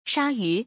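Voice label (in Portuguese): "tubarão", Portuguese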